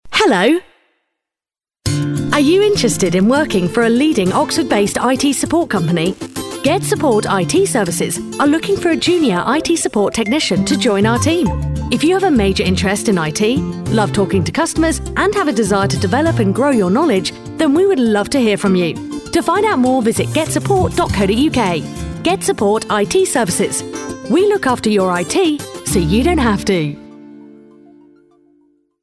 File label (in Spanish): Femenino